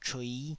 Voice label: "chui5", Taiwanese

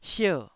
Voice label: "sier4", taiwanese Syllables

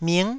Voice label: "ming9", Taiwanese syllable

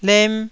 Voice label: "lem1", Taiwanese syllable

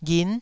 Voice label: "ghin9", Taiwanese syllable

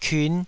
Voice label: "kiun5", taiwanese syllable

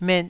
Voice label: "met2", taiwanese

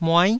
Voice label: "muai9", taiwanese

